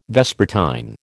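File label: "vespertine", American English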